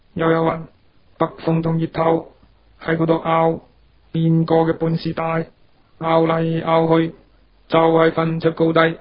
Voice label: Guangzhou mono

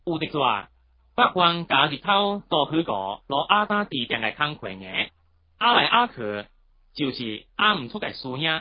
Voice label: Chaozhou melo

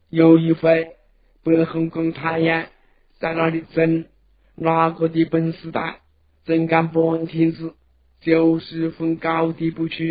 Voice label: Changsha mono

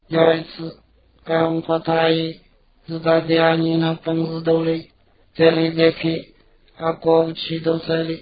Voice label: Wenzhou

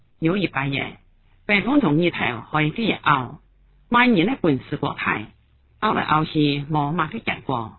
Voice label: Meixian melo

